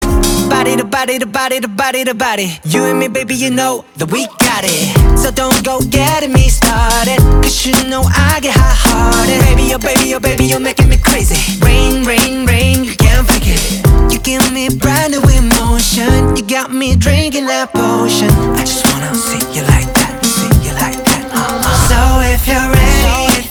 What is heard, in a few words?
rap parts ringtone